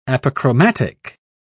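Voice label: "apochromatic", British English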